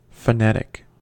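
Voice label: "phonetic", American English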